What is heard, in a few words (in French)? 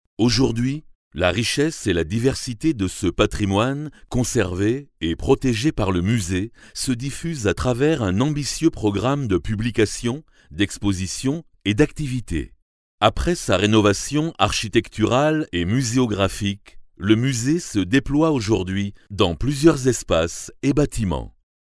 locutor francia french voiceover